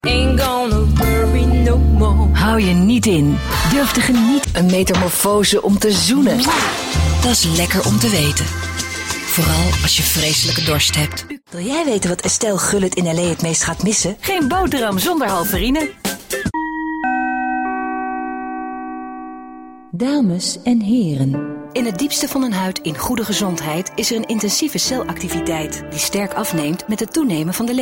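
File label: Dutch, female, commercial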